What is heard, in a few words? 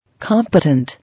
"Competent", English